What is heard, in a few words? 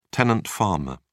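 "tenant farmer", British English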